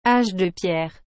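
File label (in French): "âge de pierre", French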